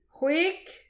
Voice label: "chwek", distagadur; petit precis de prononciation

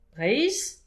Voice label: "Breizh", distagadur; petit precis de prononciation

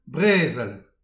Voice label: "brezel", distagadur; petit precis de prononciation

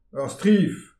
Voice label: "ur striv", distagadur; petit precis de prononciation

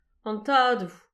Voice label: "an tadou", distagadur; petit precis de prononciation

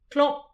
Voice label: "klanv", distagadur; petit precis de prononciation

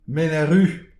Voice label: "menech ruz", distagadur; petit precis de prononciation

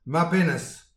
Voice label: "mab hennezh", distagadur; petit precis de prononciation

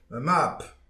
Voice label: "ar mab", distagadur; petit precis de prononciation

